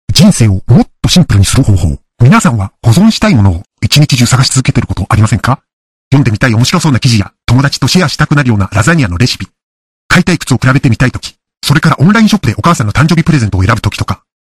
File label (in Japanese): Japanese boy